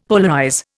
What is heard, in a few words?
"polarize", Indian; Dictionary